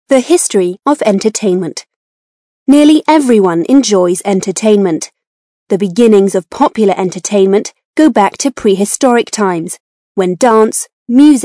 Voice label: british english female

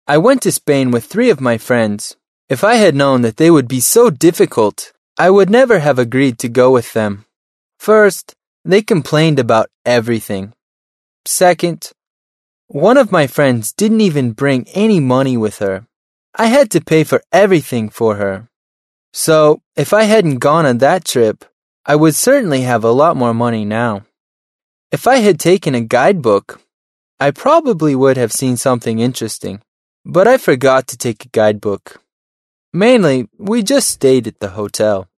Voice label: american male

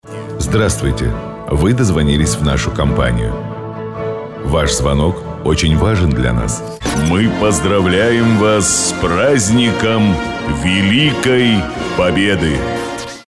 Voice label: RUS

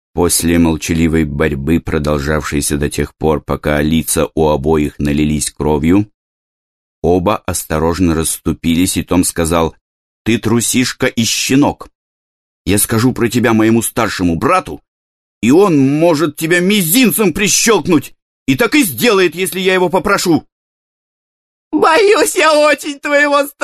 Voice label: russian male

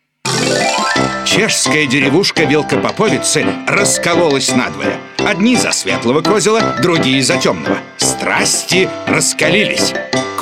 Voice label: rus

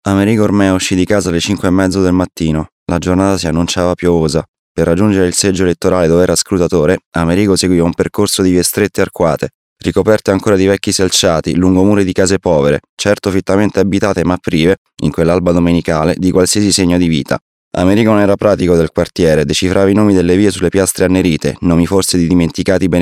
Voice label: italian male

